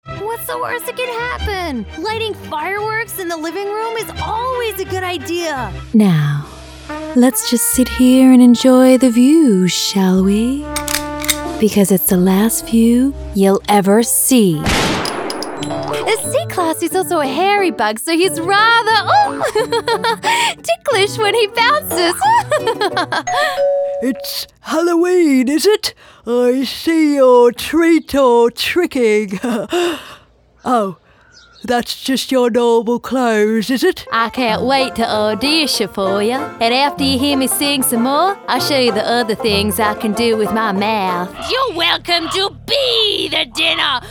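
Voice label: Australian English Animation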